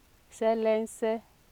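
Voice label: "selense", Nigerian Pidgin, female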